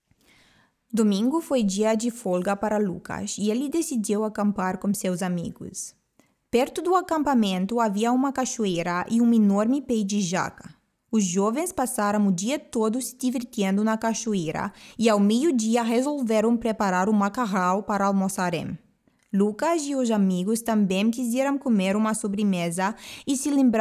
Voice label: Speaking Portuguese

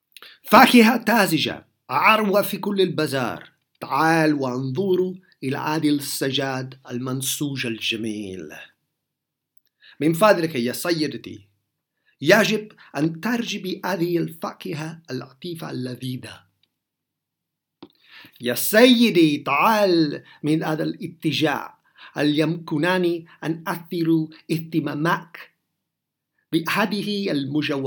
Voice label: Arabic Voice

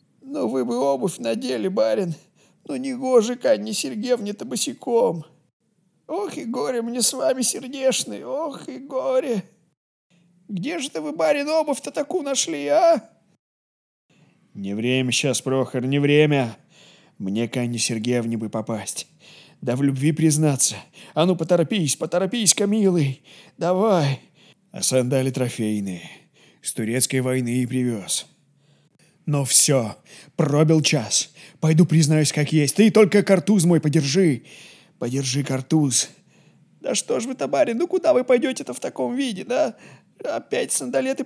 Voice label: Russian voice

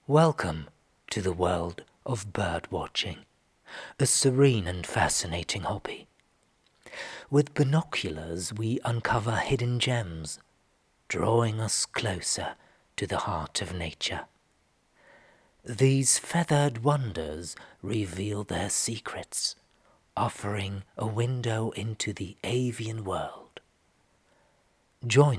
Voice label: UK English

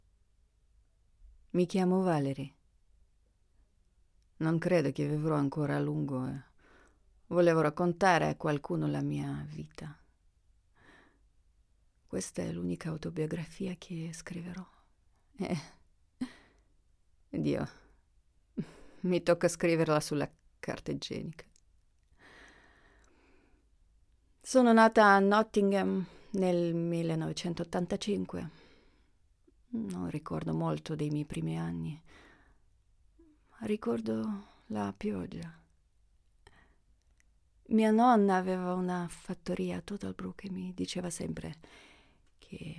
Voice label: Italian sample

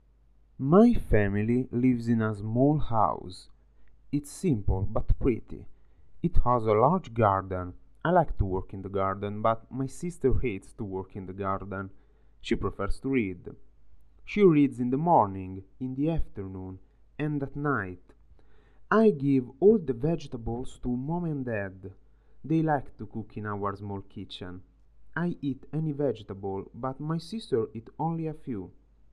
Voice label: eng

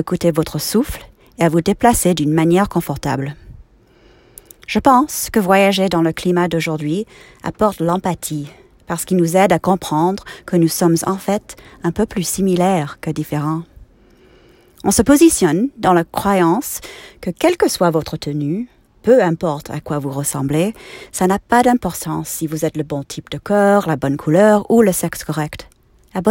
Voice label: French Narration Yoga; Yoga to the People

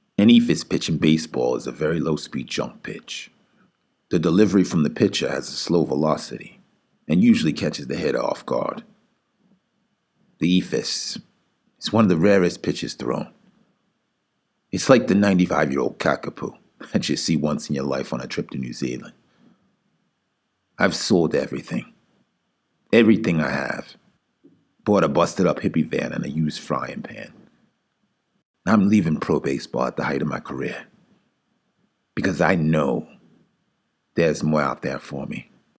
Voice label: Brooklyn Accent

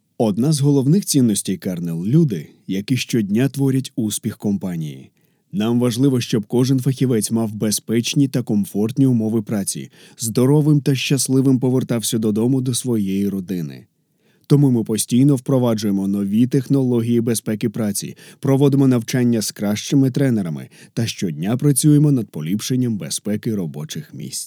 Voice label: Ukrainian voice

